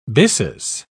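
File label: "byssus", English, British English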